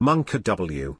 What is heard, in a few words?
"monkaw" pronunciation